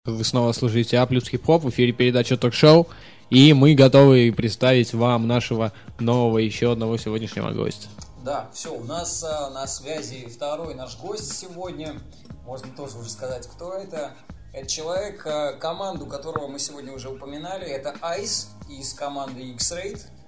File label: Phone Call, Hip-Hop Radio